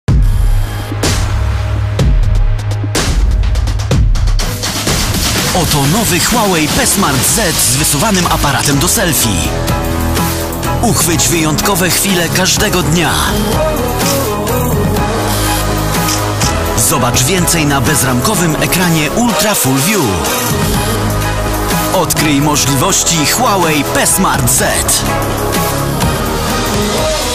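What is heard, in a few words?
Polish, male, commercial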